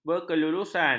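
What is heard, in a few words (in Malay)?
"berkelulusan", Malay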